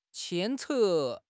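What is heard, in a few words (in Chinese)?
"牵扯", Chinese; HSK6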